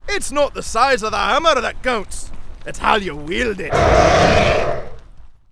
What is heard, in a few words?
Angry; warcraft3; Gryphon Rider